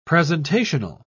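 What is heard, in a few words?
"presentational", British English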